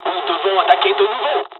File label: dialog, dialogs french; midway; War Thunder